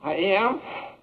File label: "i am", male; questions